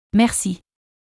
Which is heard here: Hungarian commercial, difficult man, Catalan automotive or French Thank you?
French Thank you